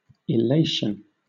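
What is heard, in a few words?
"illation", British English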